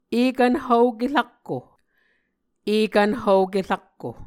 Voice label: "ekvn hvoke rakko", muscogee; Mvskoke Words with Voicing Consonants; Martin, Jack B., and Margaret McKane Mauldin. 2023. A Dictionary of Creek/Muskogee

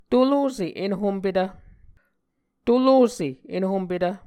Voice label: "tolose enhompetv", Muscogee

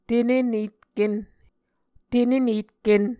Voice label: "tenenetken", Muscogee

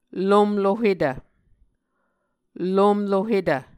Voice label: "lomlohetv", Muscogee

